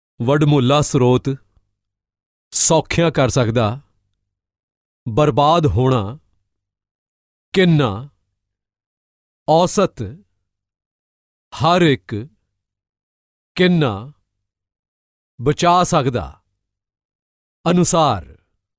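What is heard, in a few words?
Punjabi, Indian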